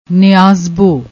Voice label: "neeazbo", Punjabi; ਨਿਆਜ਼ਬੋ